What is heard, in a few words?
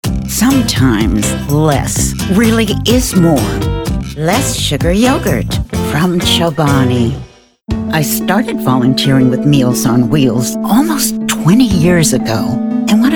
Voice Actor Commercial